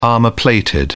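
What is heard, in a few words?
"armor-plated", British English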